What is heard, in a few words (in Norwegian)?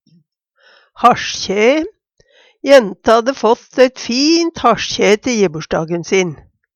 hasjkjaee, Numedalsmål; Jenta hadde fått eit fint hasjkjæe te jebursdagen sin.; Personleg utstyr, klede, sko